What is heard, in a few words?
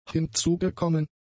"hinzugekommen", German; adjectives